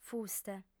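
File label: "fuste", female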